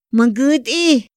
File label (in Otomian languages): ma nguti, Otomí; Días de la semana; INPI; INALI